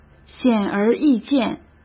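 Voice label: "显而易见", Mandarin Chinese; hsk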